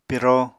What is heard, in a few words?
"piro", Apatani